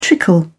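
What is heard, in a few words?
"trickle", British English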